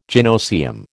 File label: "gynoecium", American